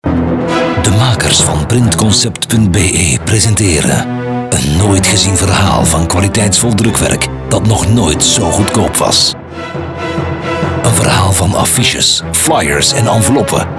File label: commercial; weekly deals printconcept